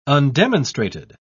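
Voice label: "undemonstrated", British English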